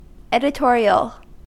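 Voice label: "editorial", US English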